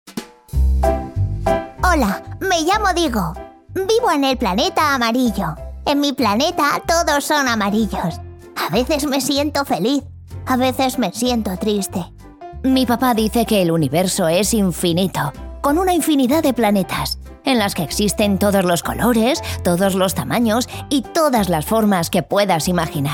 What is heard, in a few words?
Animatic Character voiceover